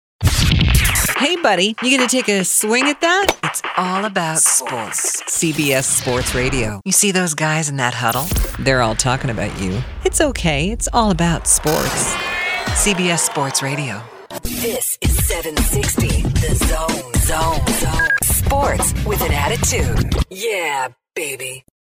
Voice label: sports imaging